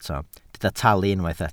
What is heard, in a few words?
"talu", Welsh